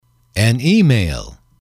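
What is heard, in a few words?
an Email, english, male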